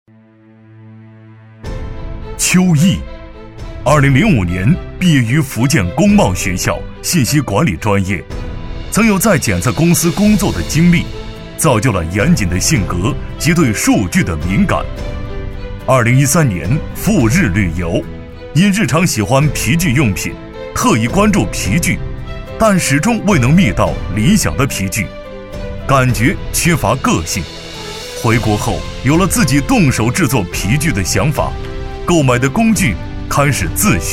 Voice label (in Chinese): 男 人物介绍 厚重大气; 邱毅; 厚重力度